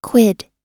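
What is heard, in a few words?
"quid", American English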